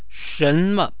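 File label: "shenme" Chinese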